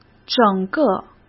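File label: "整个", Mandarin Chinese; hsk; chinese-flashcards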